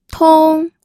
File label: "tong1", pinyin